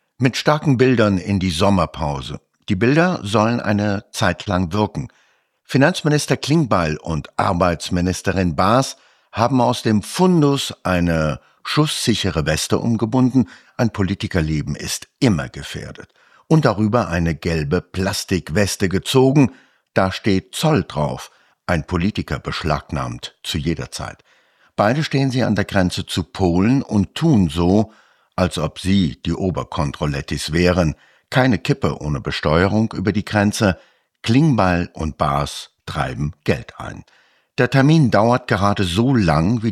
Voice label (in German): Kommentar; Kontrafunk Aktuell